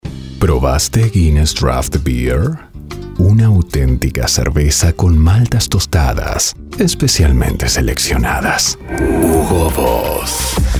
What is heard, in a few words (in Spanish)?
comerciales tono grave